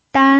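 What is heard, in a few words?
"dan" first tone, Mandarin Chinese pinyin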